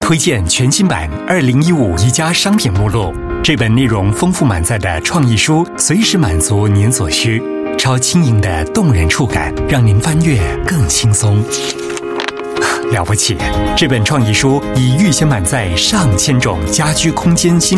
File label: Mandarin Soft Sell, Male; China; Ikea Catalog 2015 Bookbook